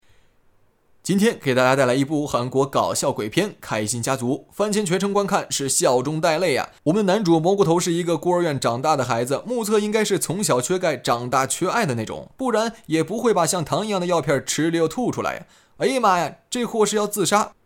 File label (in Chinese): 短视频电影解说